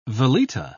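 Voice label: "veleta", British English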